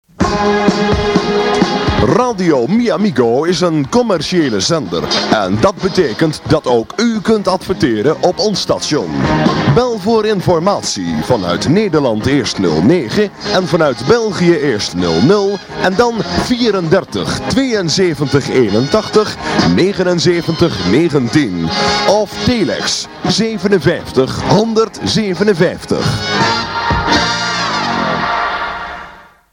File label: commercial